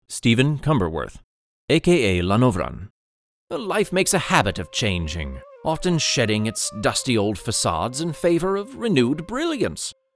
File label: character demo; demo reel